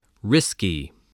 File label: "risky", English spelling